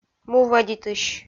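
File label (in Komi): "му вӧдитысь", Komi, female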